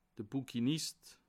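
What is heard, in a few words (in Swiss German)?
"bouquinist", Bas Rhin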